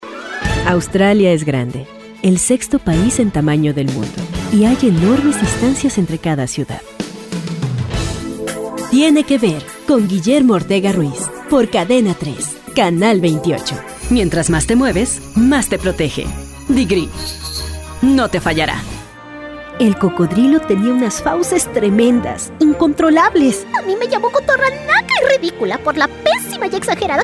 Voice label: latam mexican f commercial; voice demos

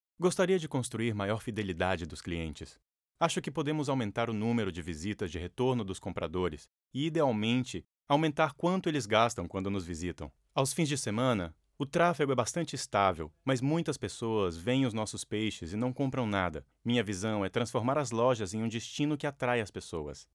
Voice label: brazilian m ivr, portuguese bra voice; voice demos

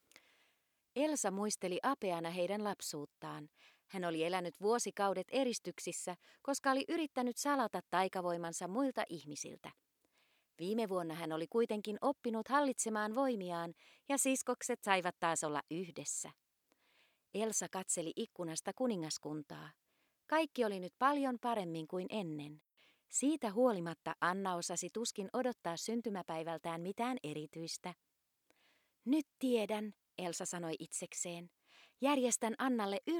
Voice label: finnish f narration; voice talents

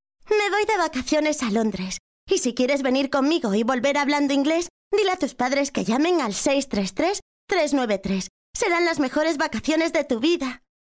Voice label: spanish f character; voice demos

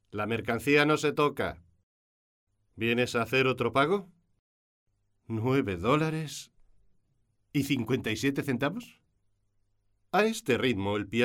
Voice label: spanish m narration

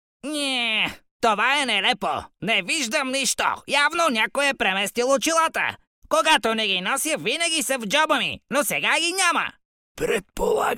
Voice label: bulgarian m character, voice; voice demos

